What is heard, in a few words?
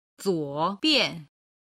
"zuo3bian5", chinese